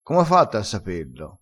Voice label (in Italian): "caati", crespinese